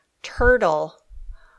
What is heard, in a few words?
"turtle", English